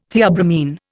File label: "theobromine", Indian